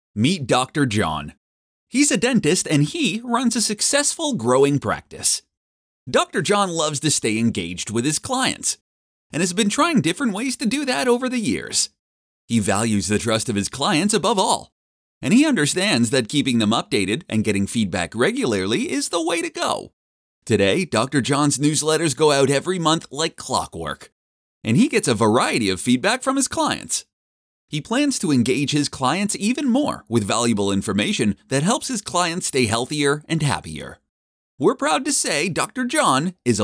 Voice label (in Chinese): 人物介绍 干音